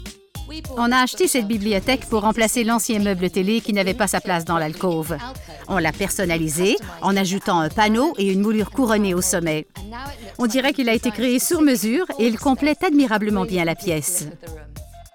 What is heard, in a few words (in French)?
SURIMPRESSION VOCALE Voix animee d'une animatrice d'emission de decoration